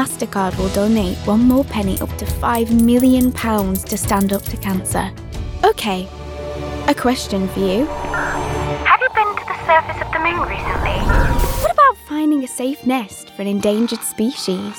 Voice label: Manchester, RP ('Received Pronunciation'), Commercial, Bright, Light, Warm, Upbeat, Young